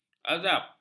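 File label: "azab", Malay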